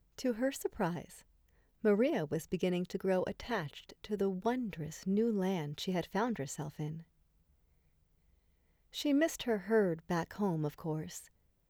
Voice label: Narration